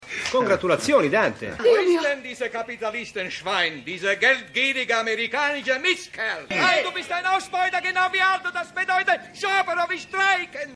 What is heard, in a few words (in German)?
Gratulant Stimme